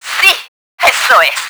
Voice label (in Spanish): thunderhead damaged, Spanish; Battle Engine Aquila; XBOX